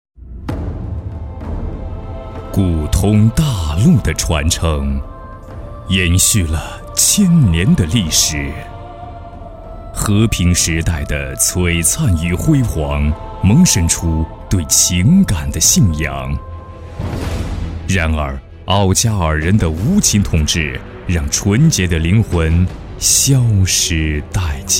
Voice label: 宣传片 大气 神秘 游戏宣传, 国语男声配音; 古通大陆; 大气沉稳

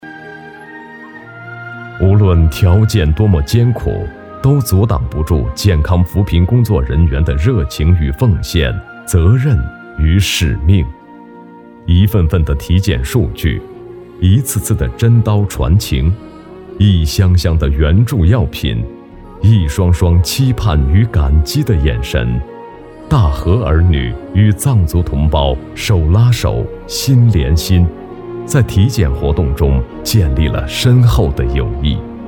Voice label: 男-记录; 大河医疗

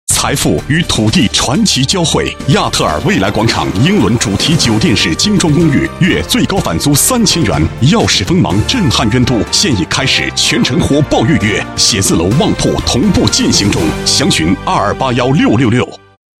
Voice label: 品牌广告 房产, 国语男声配音, 男高音; 亚特尔

